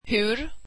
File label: swedish how